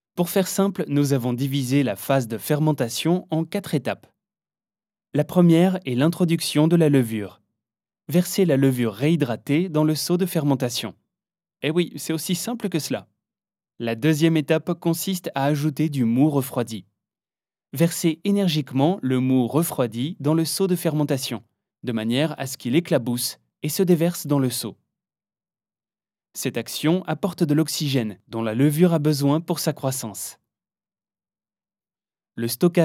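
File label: French, male, e-learning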